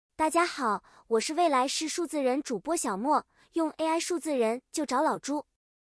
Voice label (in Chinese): 中文女